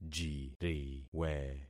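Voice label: "jiriwe", concatenated syllables; Affixing experiment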